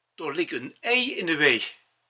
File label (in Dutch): limburgs